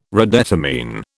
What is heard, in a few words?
"redetermine", British